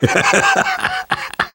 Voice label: reaction positive, survivor voice; namvet; Left 4 Dead 2; left4dead2 dlc2

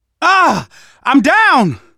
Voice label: incapacitated initial, manager, survivor, voice; Left 4 Dead 2; left4dead2 dlc2

